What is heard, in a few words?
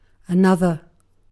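"another", British English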